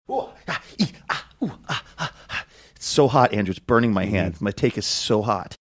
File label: making sounds of handling something hot in hands saying its so hot